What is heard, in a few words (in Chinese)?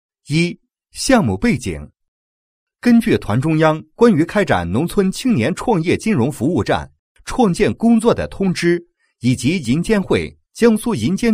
男, 讲述; 扬州农村青年创业金融服务项目